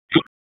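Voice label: Raleigh Police Special Operations Tactical, p25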